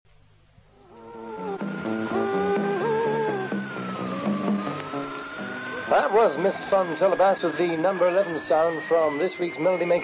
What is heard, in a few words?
Radio Scotland Jingle